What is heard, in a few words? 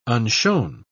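"unshown", British English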